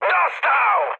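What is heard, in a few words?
Polish aircraft destroyed mood high; speech; War Thunder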